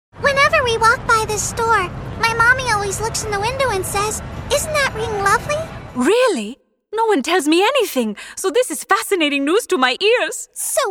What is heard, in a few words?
ANIMATION REEL